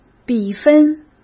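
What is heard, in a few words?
"比分", Mandarin Chinese; hsk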